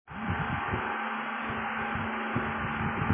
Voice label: Voice Note